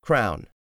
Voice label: "crown", english; 3000 words

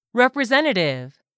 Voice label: "REPRESENTATIVE", english; 3000 words

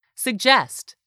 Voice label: "suggest", english; 3000 words

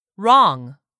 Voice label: "wrong", English word; 3000 words

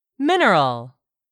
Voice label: "MINERAL", english; 3000 words

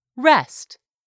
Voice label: "REST", english; 3000 words

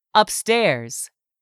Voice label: "UPSTAIRS", English; 3000 words

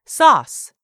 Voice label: "sauce", english; 3000 words

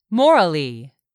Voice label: "morally", English; 3000 words

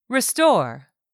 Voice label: "RESTORE", english; 3000 words

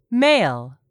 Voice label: "male", English; 3000 words

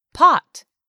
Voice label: "POT", English; 3000 words

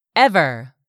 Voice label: "ever", English; 3000 words

